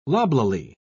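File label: "loblolly", English, British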